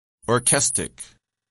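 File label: "orchestic", English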